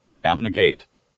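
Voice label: "abnegate" US English; pronunciation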